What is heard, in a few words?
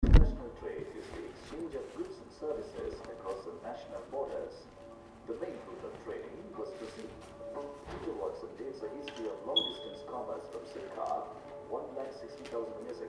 voices narrative lighthouse; South India street recordings